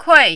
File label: "kui4", mandarin